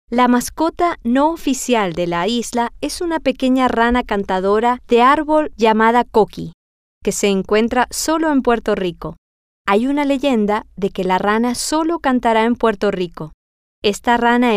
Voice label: spanish; la Mascota